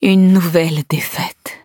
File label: defeat, fr; cookie run